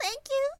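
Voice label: "tenkyu", Japanese, woman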